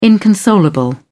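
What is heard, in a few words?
"inconsolable", British English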